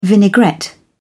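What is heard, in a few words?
"vinaigrette", English, British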